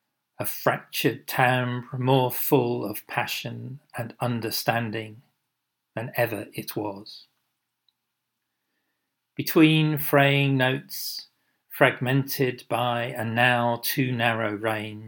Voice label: a cracked voice; First-time Visions of Earth from Space